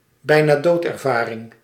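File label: "bijna-doodervaring", Dutch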